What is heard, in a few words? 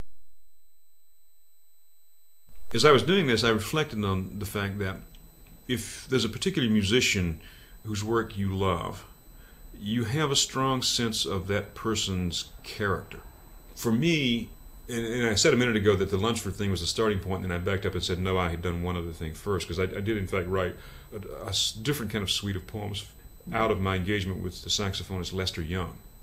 interview